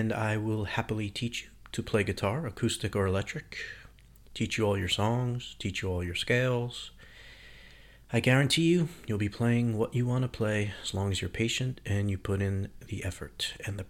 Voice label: VOICE OVER RAW